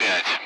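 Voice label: Speech Sound Russian Common Allied Flight; Digits; DCS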